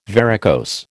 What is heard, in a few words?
"varicose", American